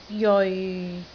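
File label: "jeoi6", Cantonese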